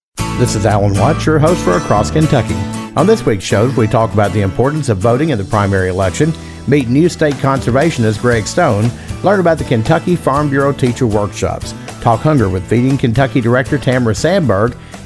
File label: promo; Across Kentucky